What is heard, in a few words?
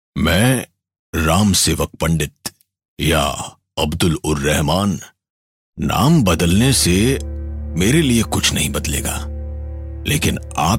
Radio spot; Gandhi